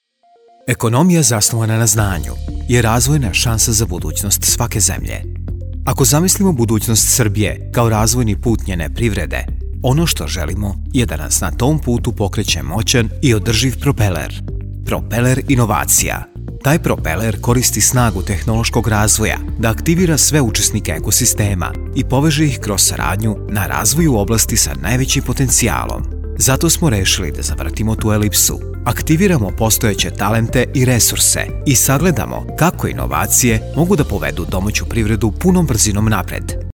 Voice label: Infomercial, serbian